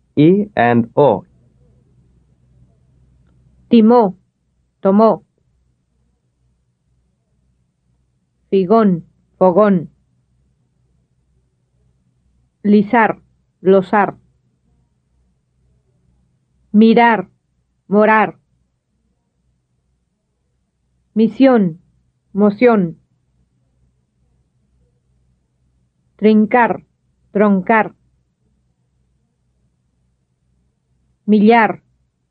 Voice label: Contrasting Spanish vowel sounds - i and o